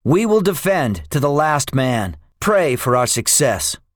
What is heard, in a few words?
debriefing, english; us guadalcanal; War Thunder